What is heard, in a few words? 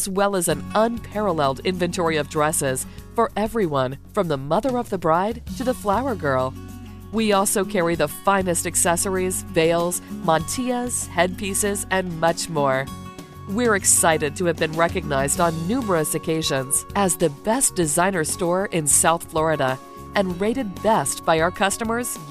Bridal Shop On Hold Message